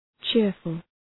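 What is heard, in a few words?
"cheerful", English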